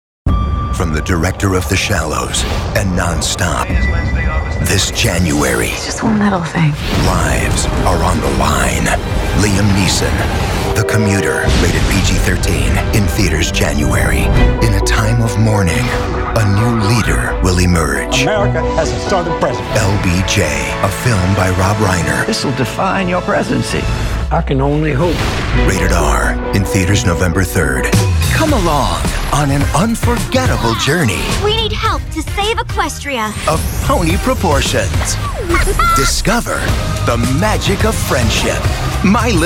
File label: Trailers